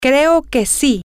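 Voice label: I think so, Spanish, female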